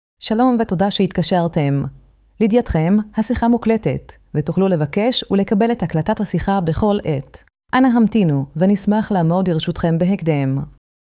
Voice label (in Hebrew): notice opener female; voiceovers